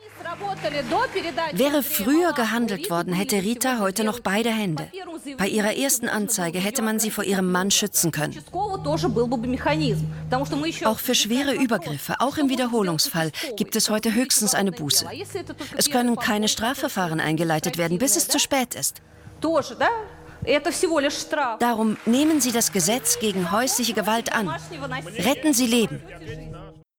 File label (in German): Voice Over, Frauen; 3SAT; Grenzerfahrung Russland